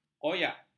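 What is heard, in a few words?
"koyak", Malay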